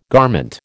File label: "garment", American